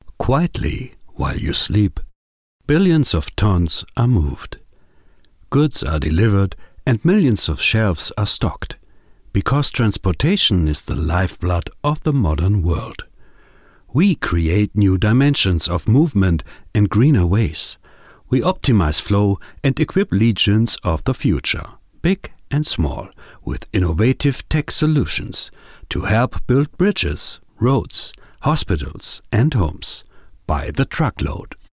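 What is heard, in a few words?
German English, male, corporate; voice over; accent of germany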